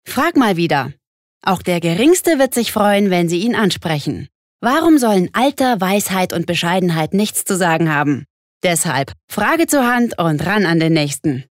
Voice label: Werbung Dynamisch

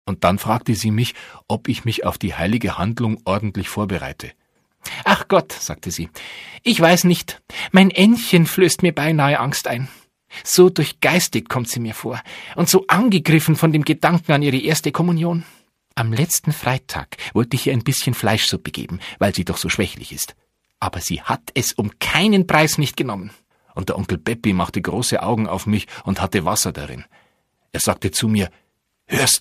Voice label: Dialekt Bayerisch

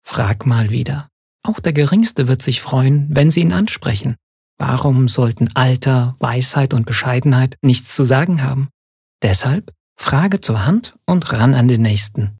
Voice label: Werbung Sanft

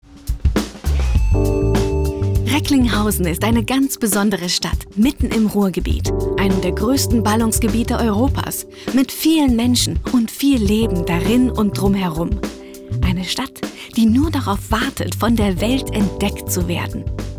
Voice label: Kommentar Freundlich

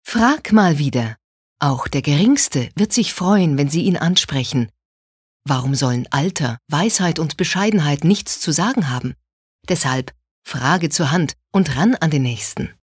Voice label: Werbung Freundlich

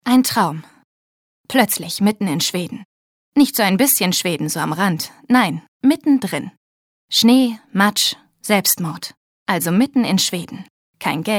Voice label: Schauspiel Energisch

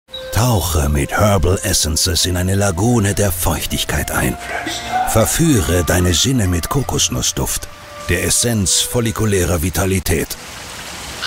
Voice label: Fremdtake Kraftvoll

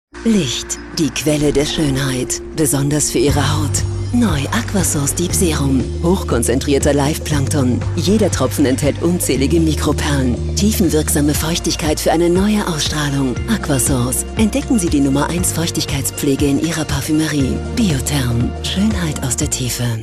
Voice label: Fremdtake Sinnlich, voices; BIOTHERM

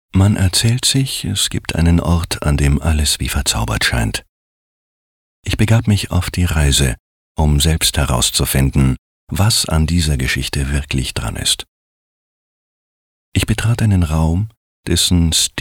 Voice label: Schauspiel, Rau

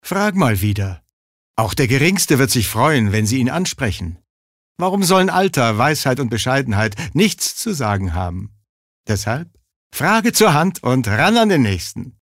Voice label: Werbung, Freundlich

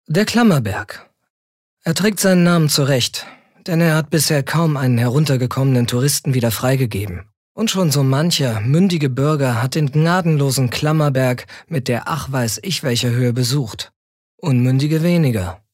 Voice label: Kommentar, Neutral

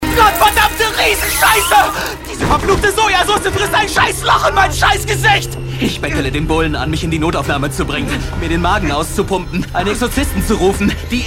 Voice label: Schauspiel Variabel

